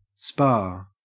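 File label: "spa", Australian English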